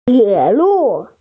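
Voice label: "tie3lu4", Mandarin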